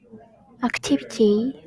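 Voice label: "activity", Japanese, female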